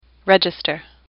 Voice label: "register", English; AWL